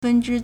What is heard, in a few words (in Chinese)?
"fen1zhi1", Chinese